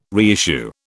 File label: "reissue", British